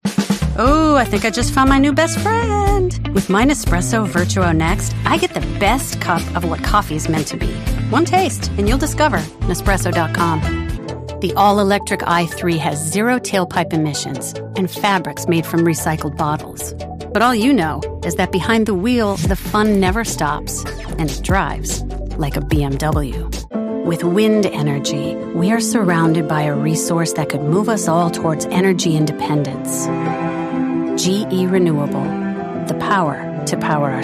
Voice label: Commercial